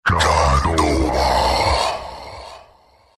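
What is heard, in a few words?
attack, chinese; dota2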